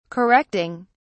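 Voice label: "correcting", British English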